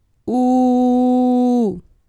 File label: uuuu, Spanish pronunciation, Phoneme u